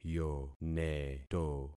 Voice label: "yoneto", concat syllables; Affixing experiment